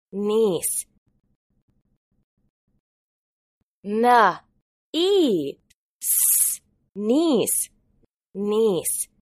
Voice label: "niece", English